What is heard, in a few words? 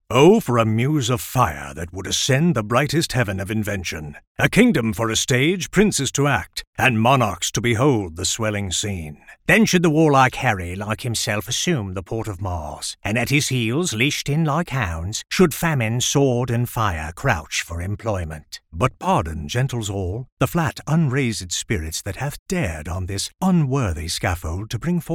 Three British Accents RP Cockney Posh